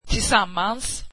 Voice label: together, swedish